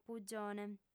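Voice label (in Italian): "pugione", lingua sarda, fem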